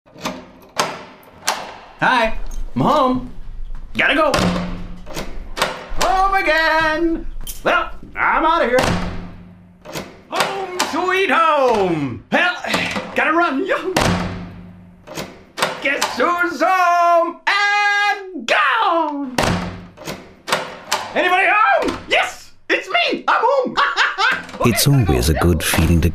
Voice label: Eng US Schauspiel Dynamisch

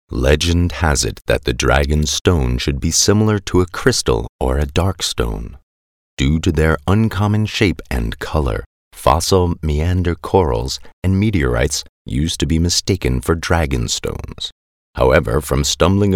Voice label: EngUS Sanft

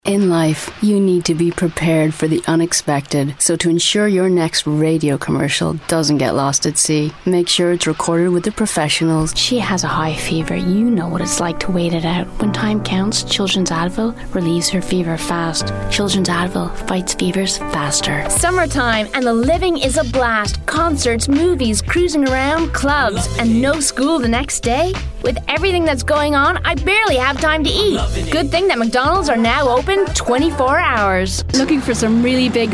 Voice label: EngUS W Variabel

